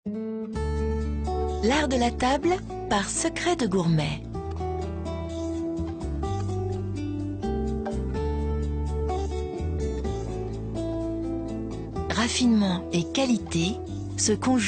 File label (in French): douce posée, voix off comédienne; Secret de Gourmet